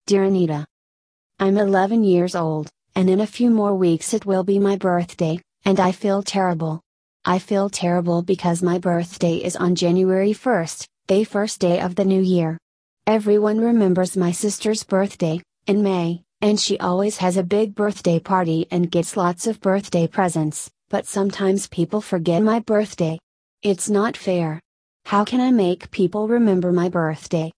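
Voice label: American; advice time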